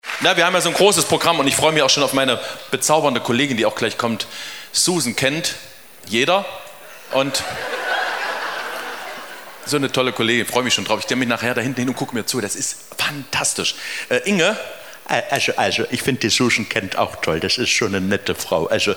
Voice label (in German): Ansage